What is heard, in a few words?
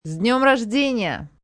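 Birthday Russian F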